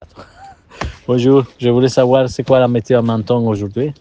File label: voice message